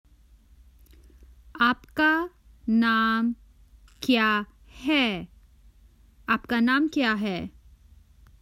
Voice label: What is your name, Common Hindi Phrases for travel